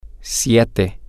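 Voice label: seven, Spanish, female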